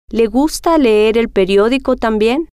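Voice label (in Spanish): Le Gusta Leer Periodico Tambien, spanish, female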